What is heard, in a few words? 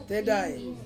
"tedai" high, Ansus